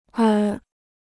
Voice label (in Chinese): "而", Chinese